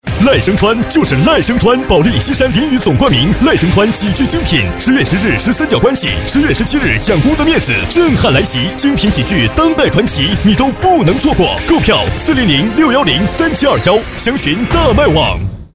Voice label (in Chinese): 男, 大气电台广告; 赖声川话剧精品